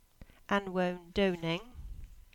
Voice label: "Noondowning" Cornish